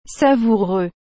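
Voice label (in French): "savoureux", French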